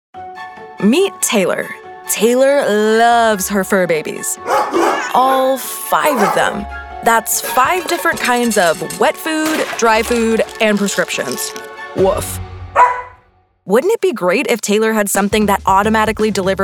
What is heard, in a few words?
Explainer